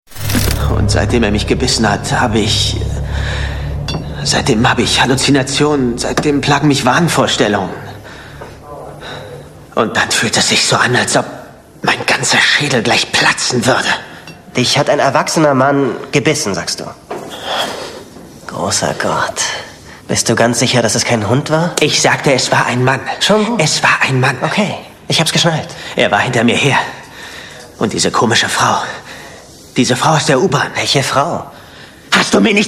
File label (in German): Synchron; Synchronsprecher & Schauspieler